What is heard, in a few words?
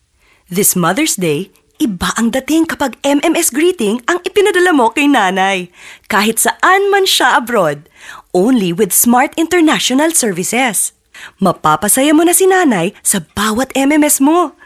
Tagalog (Motherly, Selling)